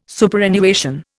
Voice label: "Superannuation", Indian